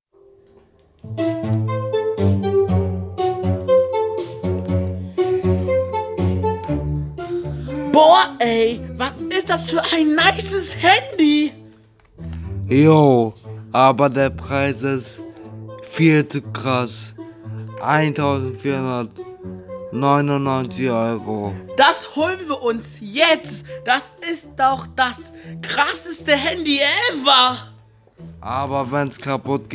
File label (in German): Werbespot